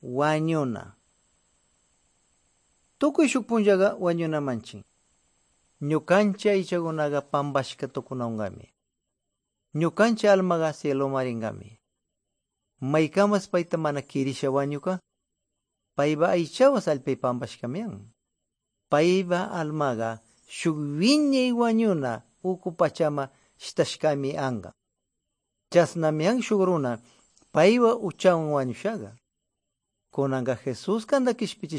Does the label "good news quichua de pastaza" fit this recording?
Yes